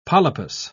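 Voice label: "polypous", British English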